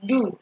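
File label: "do", Nigerian Pidgin, female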